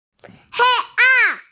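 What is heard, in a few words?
"hei1an4", Mandarin Chinese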